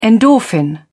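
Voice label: "endorphin", British English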